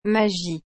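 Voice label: "magie", French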